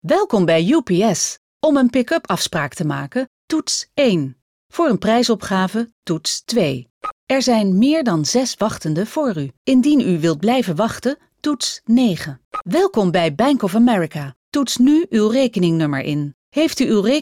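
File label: Dutch, female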